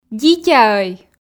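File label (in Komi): "дитяӧй", Komi, female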